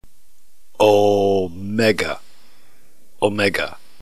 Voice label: "omega", ntgreek alphabet

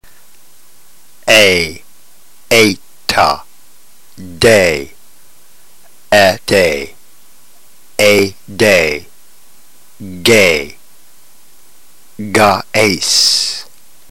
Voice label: drill, ntgreek

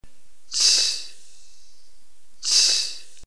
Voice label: psi, ntgreek phoneme

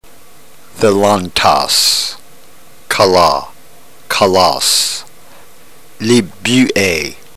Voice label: drill, ntgreek

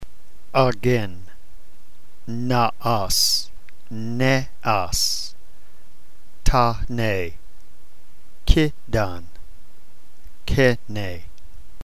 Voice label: drill, ntgreek

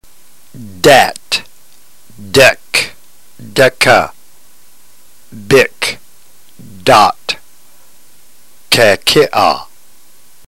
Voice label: drill, ntgreek

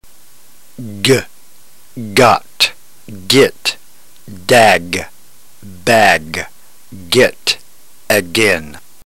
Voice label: drill, ntgreek

